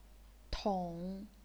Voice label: "tong3", chinese pinyin